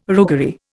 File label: "roguery", Indian